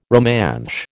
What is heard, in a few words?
"Romansh", American